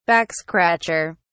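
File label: "backscratcher", British English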